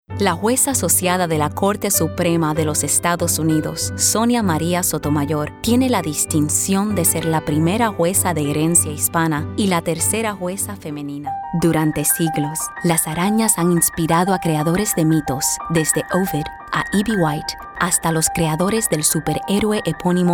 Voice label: Spanish Showcase - Narration